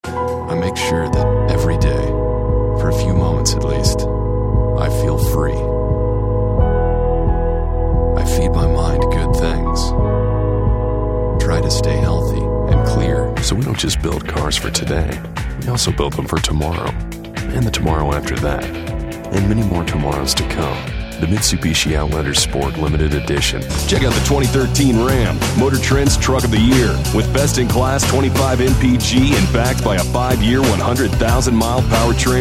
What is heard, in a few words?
Commercial